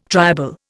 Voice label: "triable", Indian